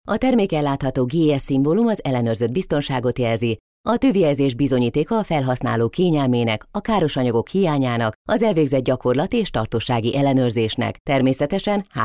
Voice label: HU F explainer